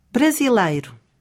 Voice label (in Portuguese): "brasileiro", Portugisisk; Portugisisk fremmedspråk Nivå I